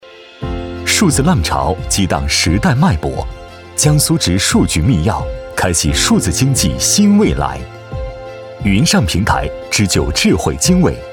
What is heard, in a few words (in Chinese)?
男 科技感; 江苏省公共数据